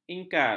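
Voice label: "ingkar", Malay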